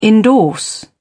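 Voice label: "endorse", British English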